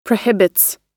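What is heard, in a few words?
"prohibits", American English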